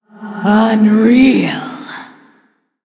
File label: Female Blood Elf Wow; Valeera Deathknight